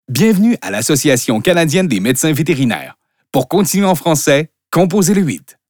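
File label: french canadian interactive voice response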